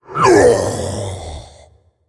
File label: Male Faceless Death